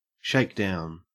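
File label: "shakedown", Australian English; pronunciations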